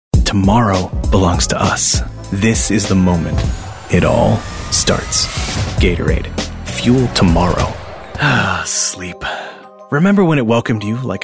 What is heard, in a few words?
commercial